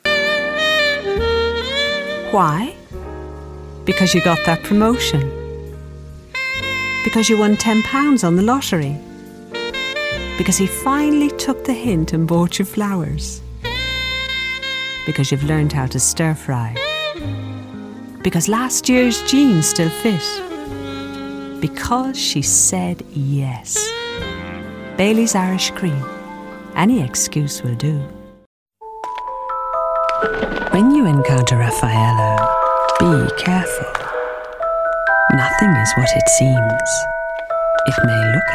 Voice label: Commercials, female